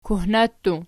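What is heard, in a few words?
"kuhna", plural, Archi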